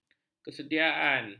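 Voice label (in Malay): "kesediaan", Malay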